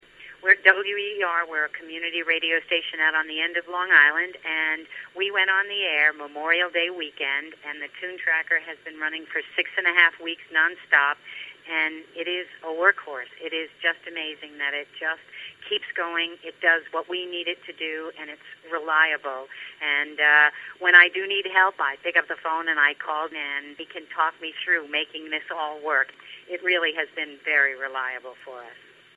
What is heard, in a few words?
testimonial